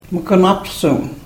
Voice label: "mukunapsuun", karuk